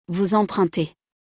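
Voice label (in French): "vous empruntez", French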